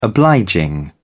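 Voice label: "obliging", British English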